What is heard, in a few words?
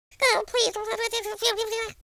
"pray", Cowgirl; speech